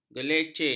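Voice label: "gelecek", Malay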